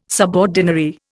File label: "subordinary", Indian